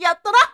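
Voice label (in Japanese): "shonen yattana", Japanese boy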